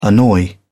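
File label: "annoy", British English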